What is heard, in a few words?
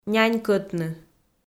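"нянь кӧтны", Komi, female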